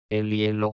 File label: "el hielo", Spagnolo